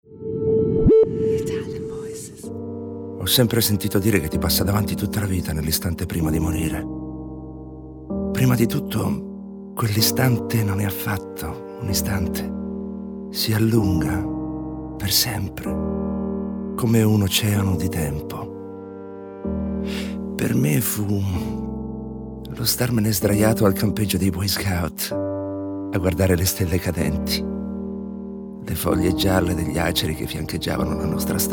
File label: ITALIAN VOICES Cinematic Monolog, male voices